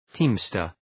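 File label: "teamster", English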